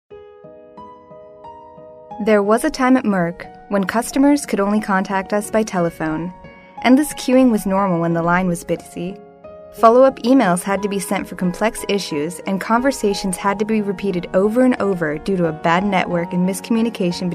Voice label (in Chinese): 美国女声, 知性; 外语